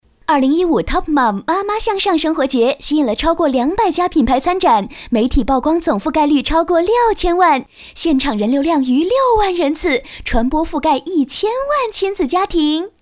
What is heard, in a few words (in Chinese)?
女 广告 节日 生活节 活力